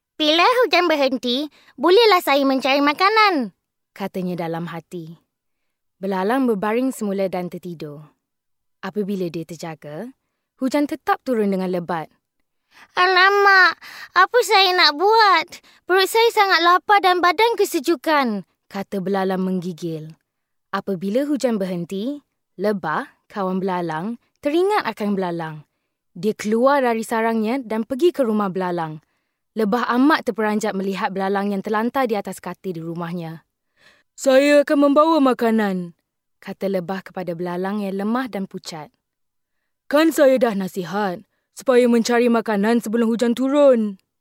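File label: Bahasa, female, Child voice, narration, malaysian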